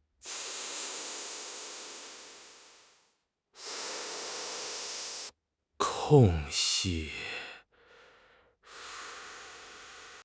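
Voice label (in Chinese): "空隙", Chinese; HSK; HSK6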